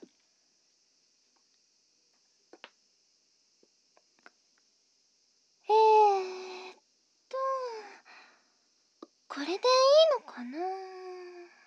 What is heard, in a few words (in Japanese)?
バイノーラル; アイリちゃんのバイノーラル搾取！